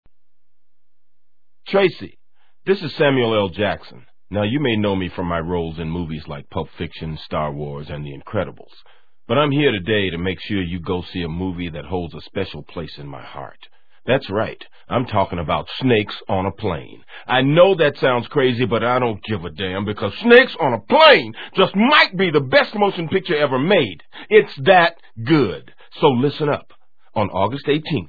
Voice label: Voicemail; Snakes on a Plane